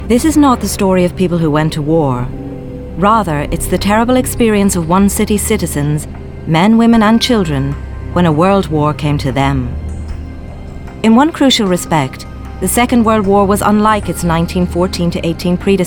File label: female, Northern Irish, Northern Irish accent documentary